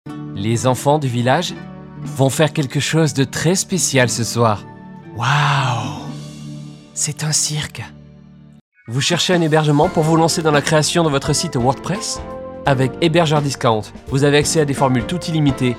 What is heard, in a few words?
French